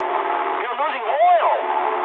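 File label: damaged oil mood medium, speech English; War Thunder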